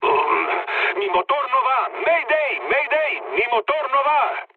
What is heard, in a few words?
damaged engine, mood low, speech; War Thunder